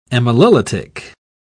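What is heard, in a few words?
"amylolytic", British English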